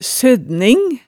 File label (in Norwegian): "sødning", hemsedalsmaalet